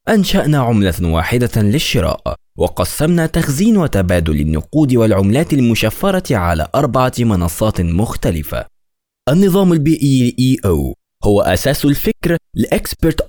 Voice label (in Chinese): 阿拉伯语男 外语 小语种